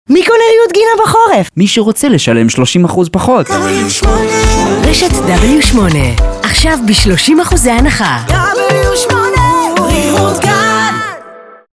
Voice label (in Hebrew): תשדיר